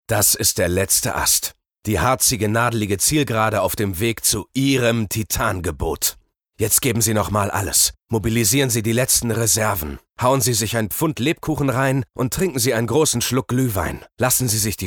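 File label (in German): werbung, sprecher; euronics; LOFT VOICES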